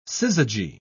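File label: "syzygy", British English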